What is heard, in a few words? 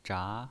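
"zha" Mandarin Chinese pinyin voice, second tone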